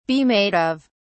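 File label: "be made of", English, British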